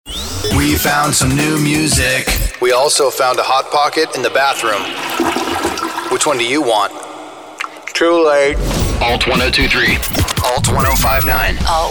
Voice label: ALT ROCK Radio Imaging